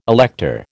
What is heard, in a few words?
"elector", American